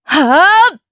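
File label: Casting, Japanese; Seria; kings raid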